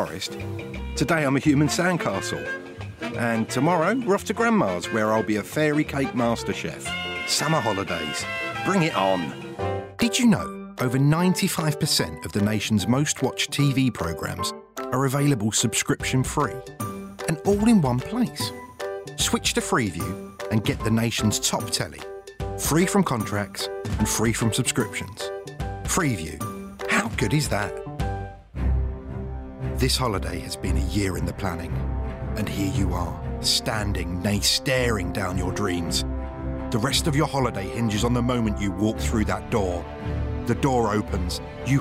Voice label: Commercials Montage, 30/40's London, Natural/Honest/Friendly